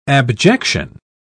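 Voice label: "abjection", British English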